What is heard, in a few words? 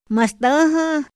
"ma stäha", Otomí; INPI; INALI